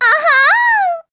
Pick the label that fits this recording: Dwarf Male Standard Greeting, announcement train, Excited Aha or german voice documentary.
Excited Aha